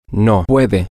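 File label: she can no, spanish, male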